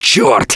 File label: reaction negative, russian; Gambler